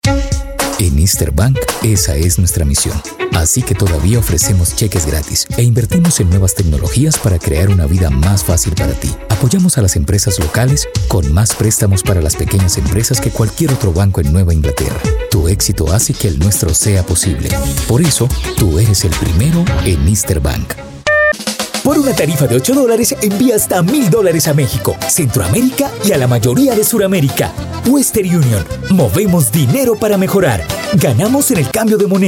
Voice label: spanish voice over male commercial